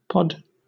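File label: "pod", British English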